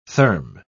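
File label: "therm-", British English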